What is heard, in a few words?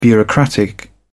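"bureaucratic", British English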